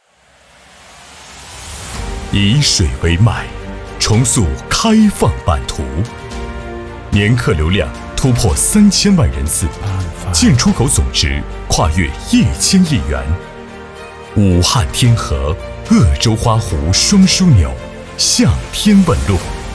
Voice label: 男692 宣传片; 以水为脉

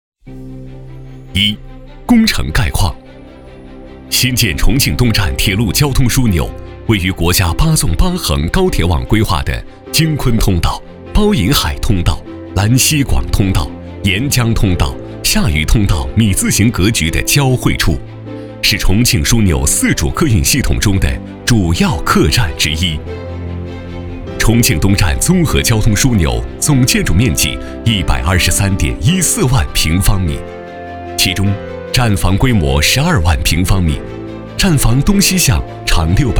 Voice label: 男349 宣传片; 观摩宣传片

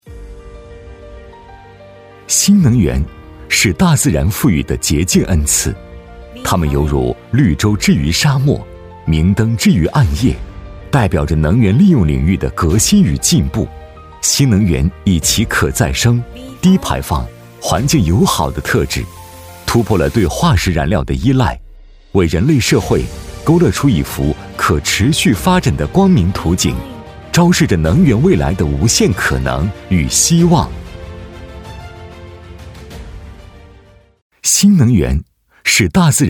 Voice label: 男 企业 新能源; 巽林绿能

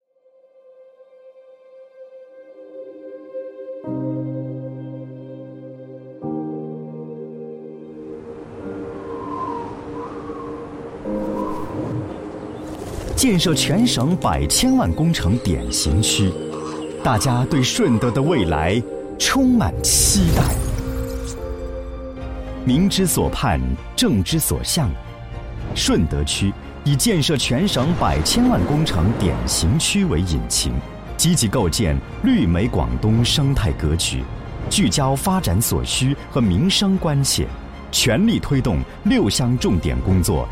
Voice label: 男 宣传片; 顺德宣传片

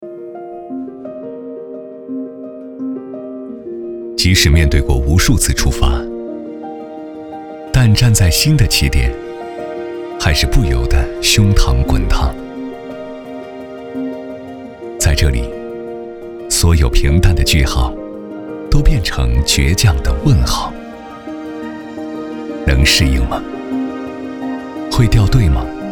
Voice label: 男 独白; 答案

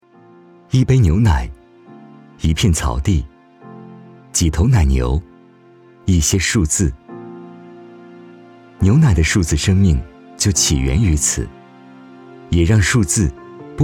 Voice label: 男 企业 清新 自然; 伊利

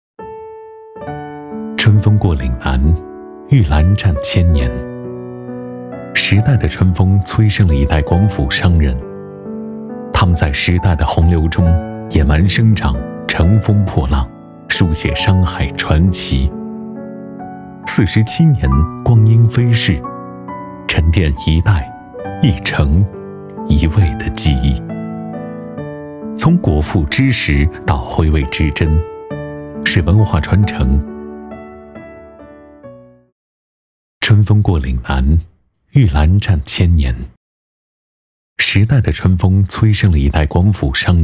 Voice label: 男 专题; 春风过岭南

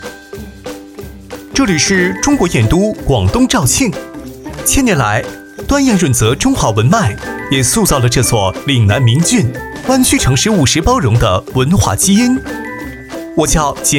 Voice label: 男

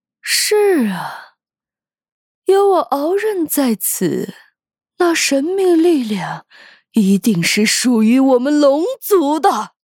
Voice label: 女 角色

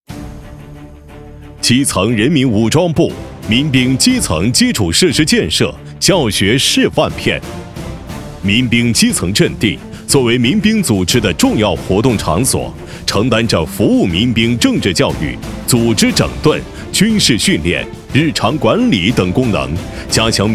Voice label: 男 专题 厚重力量; 人民武装

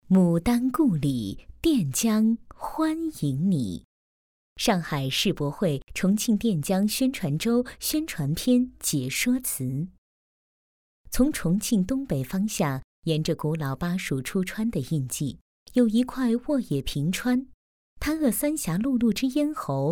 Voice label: 女 专题 知性甜美

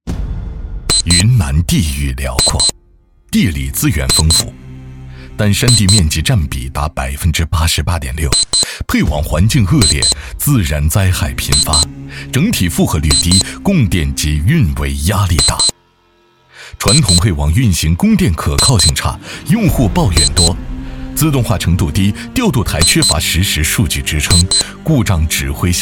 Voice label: 男 有力宣传

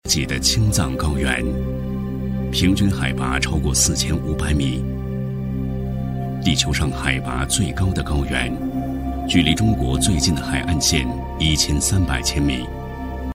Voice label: 男97 纪录片; 山海之间

